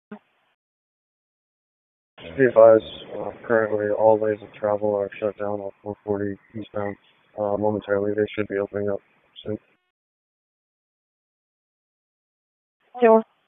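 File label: Police Southwest District Disp, radio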